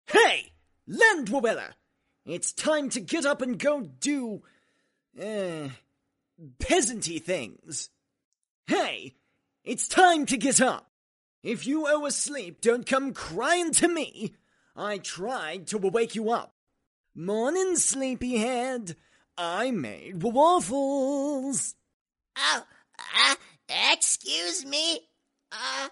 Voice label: dialogue comic; tendou